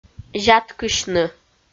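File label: "зяткысьны", Komi, female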